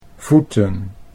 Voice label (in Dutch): "voeten", Dutch